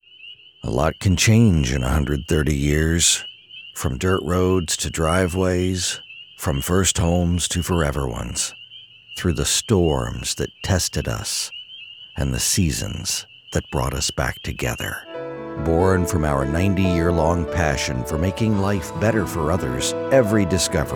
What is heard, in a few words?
Ad; abVoices